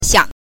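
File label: "xiang", neutral tone, Mandarin Chinese pinyin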